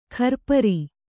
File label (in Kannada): "ಖರ್ಪರಿ", Kannada